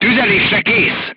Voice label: voice message charged, crew tanks hungarian